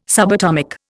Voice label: "subatomic", Indian

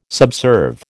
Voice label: "subserve", American

